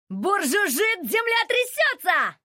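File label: Russian; 探奇（守望先锋2）/终极技能; 俄语; Бур жужжит, земля трясется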